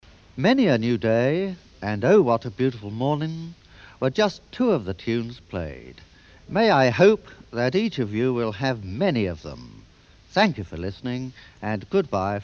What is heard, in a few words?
Announcement